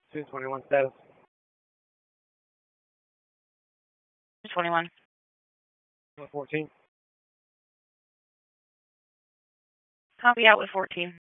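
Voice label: Police Northeast District Dispatch, Raleigh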